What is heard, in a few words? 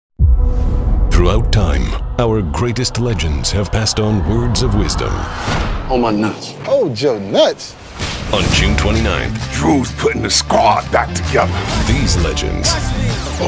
trailer men